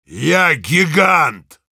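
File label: Heavy cheers, russian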